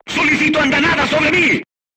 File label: voice message art command oneself, crew tanks spanish